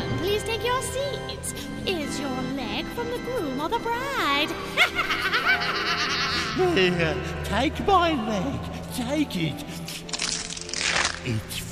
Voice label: halloween spooky